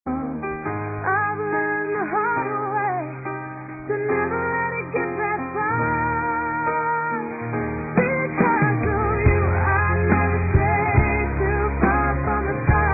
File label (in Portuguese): ESPOSO F; Dia Dos namorados; fem; Telemensagens 2017